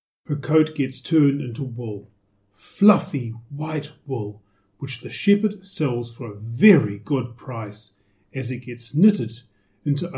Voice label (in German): englisch